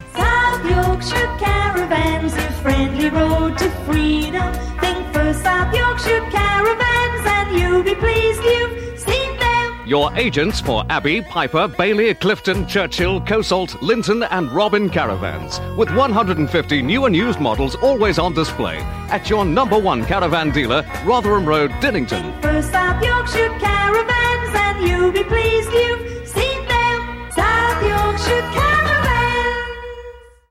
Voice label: Radio Hallam Advert; South Yorkshire Caravans